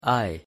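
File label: "i", English; pronunciation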